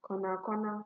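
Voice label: "corner corner", Nigerian Pidgin, female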